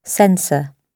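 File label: "censor", British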